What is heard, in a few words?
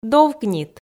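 "довкнит", Komi, female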